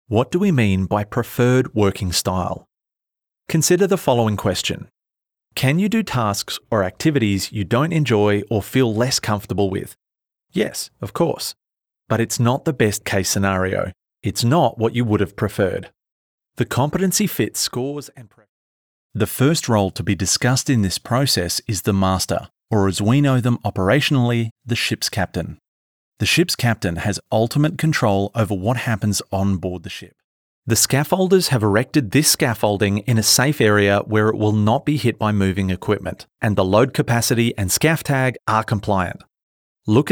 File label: english australian e-learning